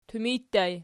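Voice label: "tumaj" ergative, Archi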